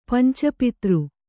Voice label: "ಪಂಚಪತ್ರ", Kannada

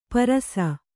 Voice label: "ಪರುಸ", Kannada

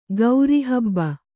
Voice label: "ಕಾರಹಬ್ಬ", Kannada

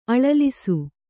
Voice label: "ಅಳಲಿಸು", Kannada; aḷalisu; to torture; to torment.; to cause to cry.

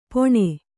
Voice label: "ಪೊಣೆ", Kannada

